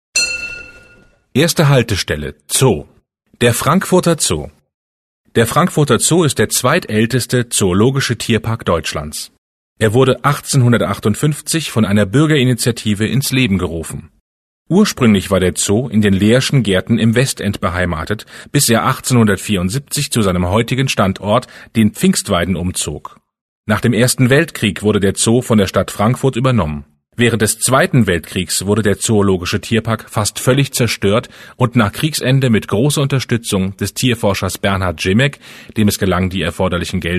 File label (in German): Hochdeutsch; Entlang der Strecke; Ebbelex